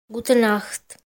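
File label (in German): "gute Nacht", German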